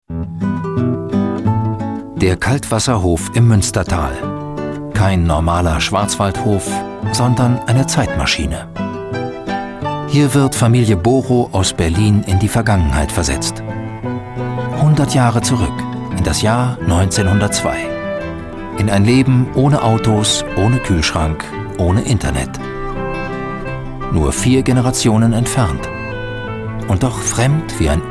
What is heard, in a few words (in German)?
Kommentar Neutral